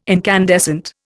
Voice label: "incandescent", Indian